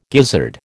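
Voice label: "gizzard", American English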